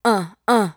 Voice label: "Uh uh" adlib, dry vocals; Raw Vocals; Adlibs

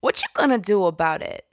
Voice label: "What Chu Gonna Do", adlib, Dry Vocals; Raw Vocals; Adlibs

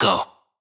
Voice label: adlib Go wet, vocals; Adlibs

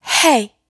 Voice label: Breathy "Hey", adlib, Dry Vocals; Raw Vocals; Adlibs

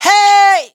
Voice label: "Hey", adlib, Dry Vocals; Raw Vocals; Adlibs

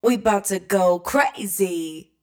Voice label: adlib Crazy wet, vocals; Adlibs

